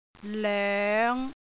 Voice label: "loeng5", cantonese